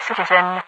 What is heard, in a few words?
"citizen", radiovoice; Garry's Mod; hl2; overwatch